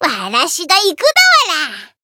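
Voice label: variable chant, Japanese; makiwara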